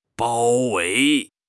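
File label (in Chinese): "包围", Chinese; HSK6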